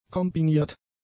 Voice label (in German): "kombiniert", German; adjectives